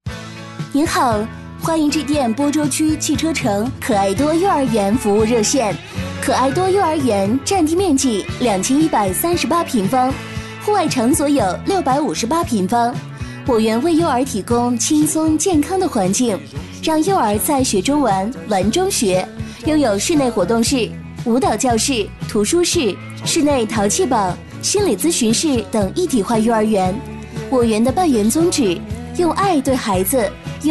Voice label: 女 彩铃 温馨时尚 可爱多幼儿园, 国语女声配音

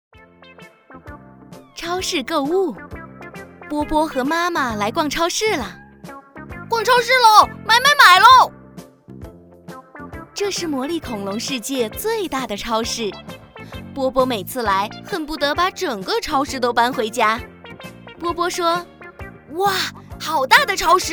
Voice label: 儿童读物, 绘本小故事

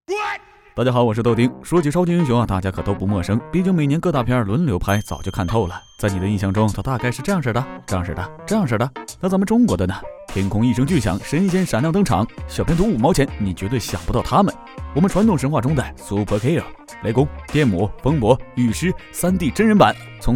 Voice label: 男 电影解说 沉稳带幽默

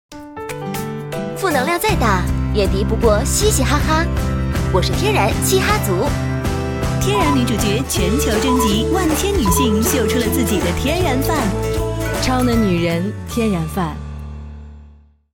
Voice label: 女 广告 洗衣液 时尚轻快; 超能洗衣液

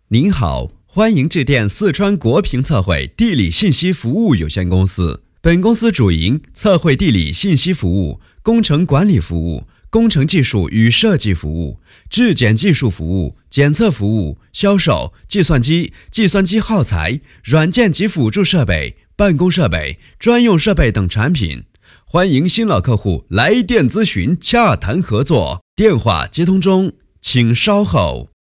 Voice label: 男 彩铃

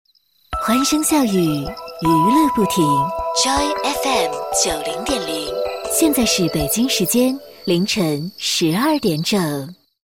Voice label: 女 电台报时 整点报时; 国语女声配音; 双语配音

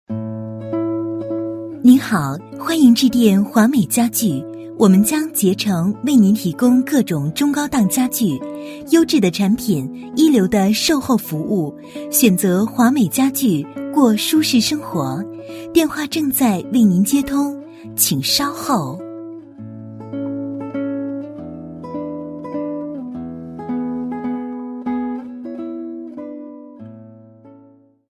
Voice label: 彩铃 家具, 国语女声配音; 华美家具

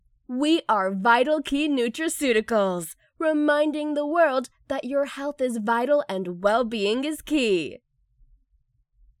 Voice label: 外籍英女 感染力强 保健食品店广告; VITALKEY

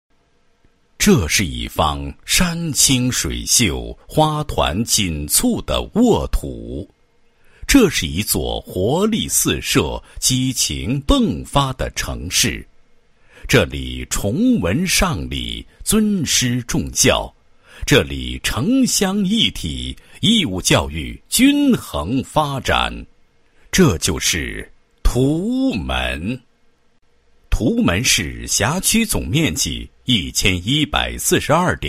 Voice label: 男, 国语男声配音

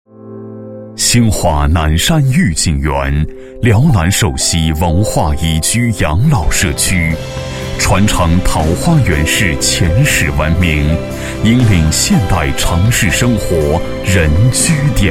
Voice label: 男 房地产专题; 兴华南山御景园

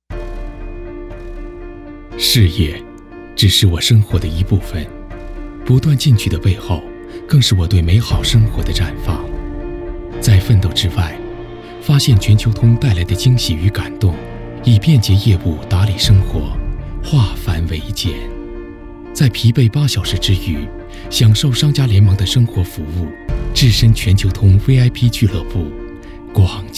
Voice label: 英男 中文 专题 旁白风格; 中国移动音乐版

